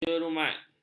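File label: "jerumat", Malay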